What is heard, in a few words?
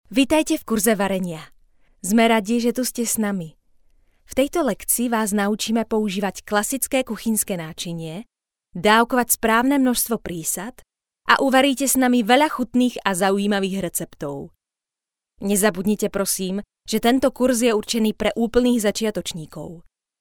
slovakian voiceover locutora eslovaca